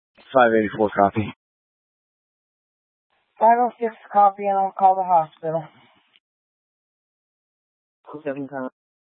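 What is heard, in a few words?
County Fire, Scanner audio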